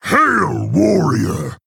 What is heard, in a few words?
Male Orc Greetings; Hero